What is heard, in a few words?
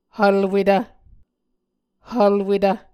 "hvlwetv", Muscogee